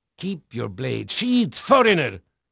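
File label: Dutch male, voice; Pirates Of The Caribbean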